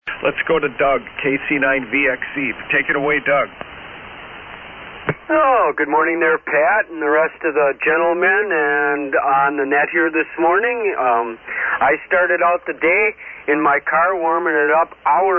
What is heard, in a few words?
Yaesu FT-101ZD & Henry Amp; Hustisford, Wi.